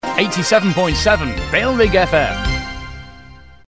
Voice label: 87.7 bfm, ripple bed; bailrigg fm; bfm jingles ads RSL 1994